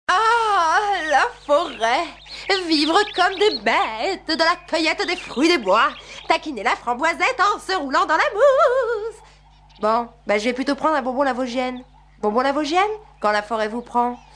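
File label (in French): Folledingue, comédienne voix off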